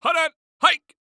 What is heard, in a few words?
Sports Human Voice Male Football Quarterback; Hut Hike